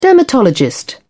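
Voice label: "dermatologist", British English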